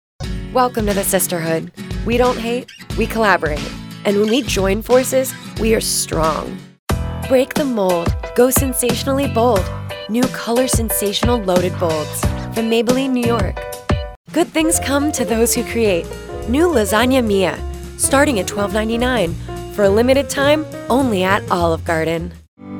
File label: Commerical